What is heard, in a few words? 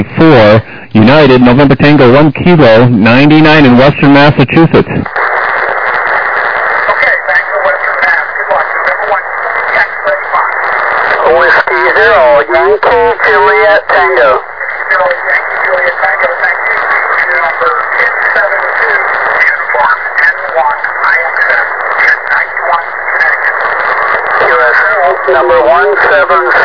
USB 21MHz